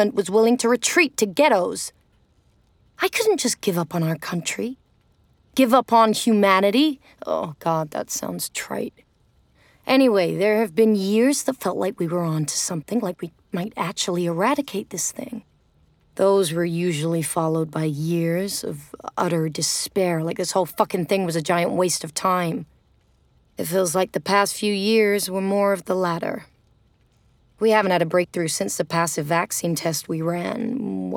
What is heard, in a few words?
Narrative Scientist Global Outbreak; Scientist (American)